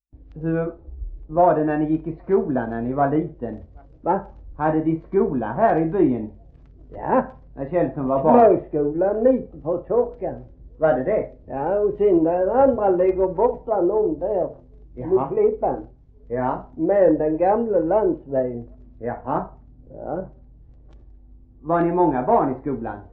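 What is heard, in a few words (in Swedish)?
Gråmanstorp Skåne, Svenska sagor dialekter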